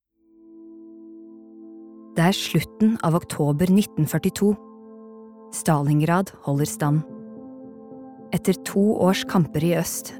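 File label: Norwegian dokumentar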